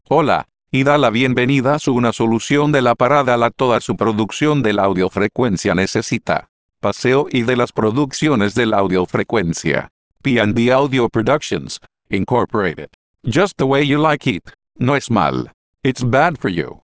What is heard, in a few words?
spanish, dectalk